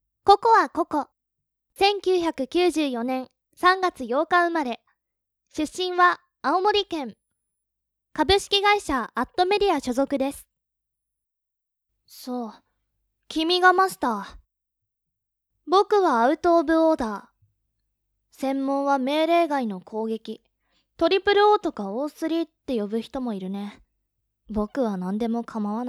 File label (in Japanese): 生意気少年タイプのナレーション